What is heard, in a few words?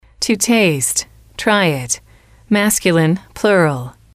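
to taste try it, English, female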